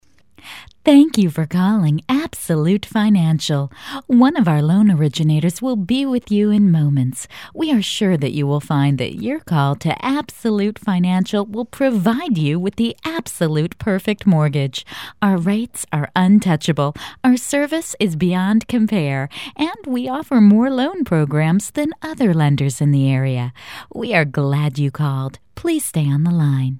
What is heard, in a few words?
message on hold